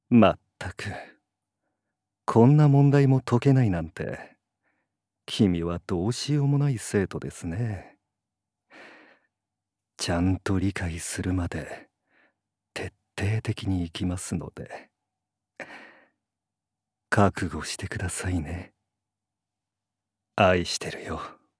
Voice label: セリフ イケメン系

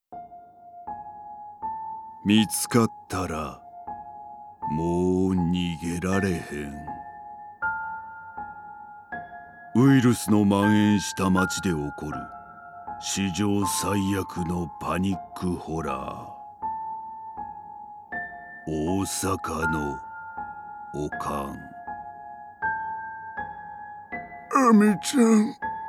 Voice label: ホラー映画 CM; ホラー映画「大阪のおかん」CM

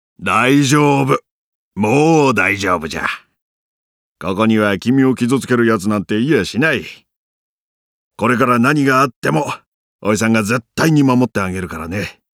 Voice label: セリフ 50-60代 子供を安心させる

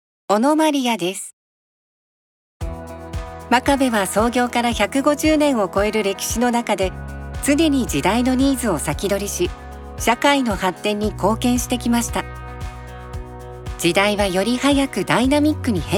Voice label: 企業向けストレート

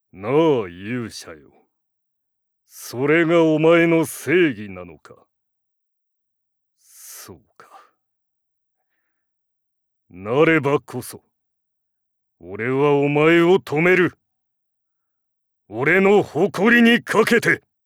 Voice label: セリフ 実直悪役

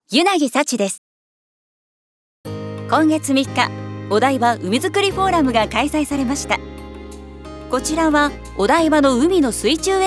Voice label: アナウンス 元気 明るめ 紹介; 「海づくりフォーラム」

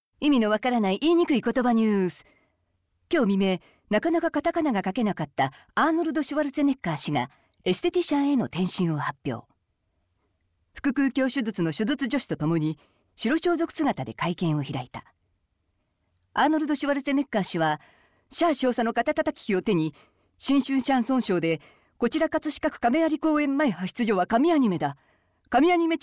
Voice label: 早口言葉ニュース

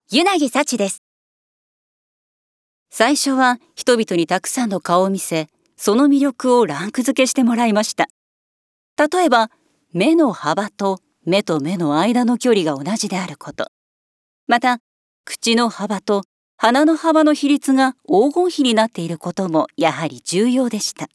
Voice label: VO風 説明 40代女性

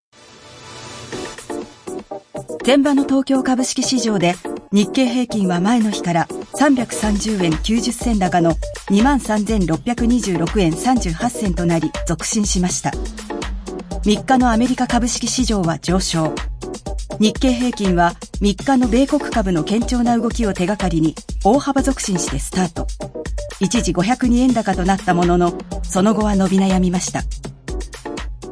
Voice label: ニュース ストレート; 「株式ニュース」

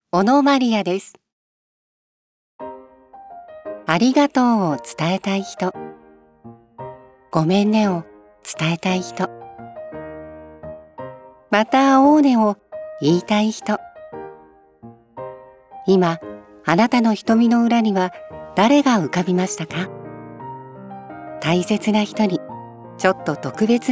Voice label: CM 語りかける; 「ハッピーレター」

